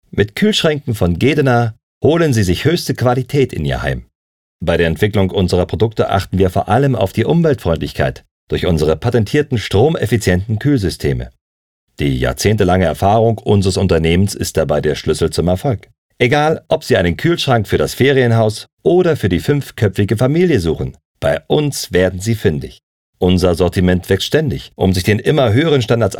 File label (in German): Imagefilm